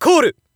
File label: Narration POKER Call